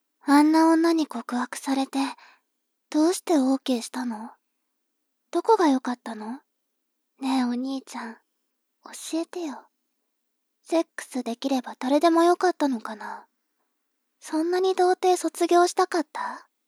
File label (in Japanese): 妹 台詞